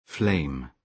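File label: "flame", British English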